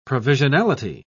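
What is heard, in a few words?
"provisionality", British English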